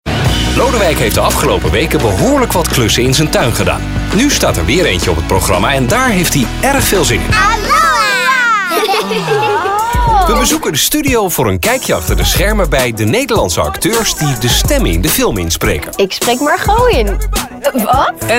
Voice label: dutch tv shows

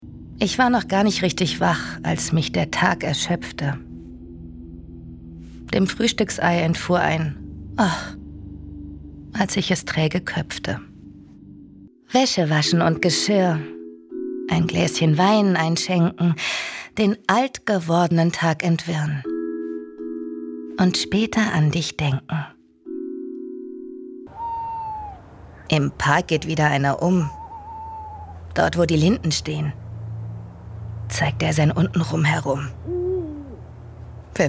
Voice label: german narration; voice actors

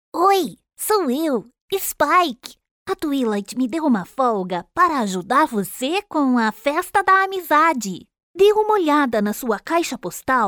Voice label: portuguese brazilian animation

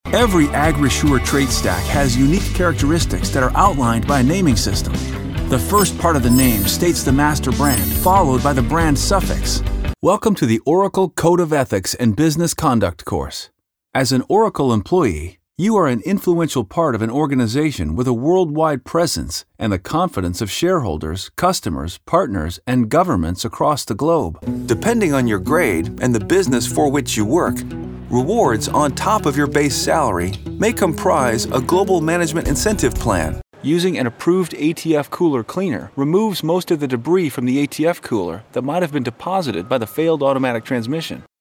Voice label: english american e-learning

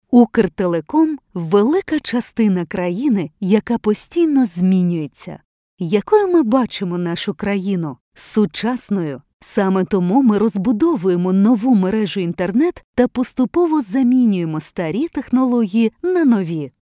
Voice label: ukrainian corporate videos

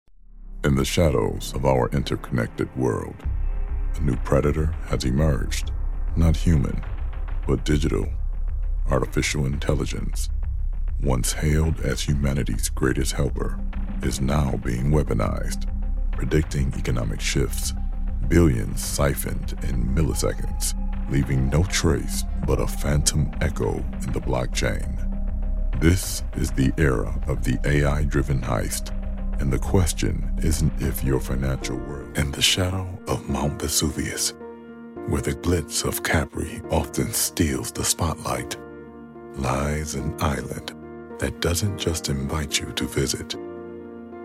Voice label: english american documentaries

